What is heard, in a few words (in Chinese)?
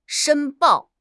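"申报", Chinese; HSK6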